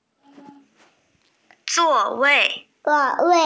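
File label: "zuo4 wei4", Mandarin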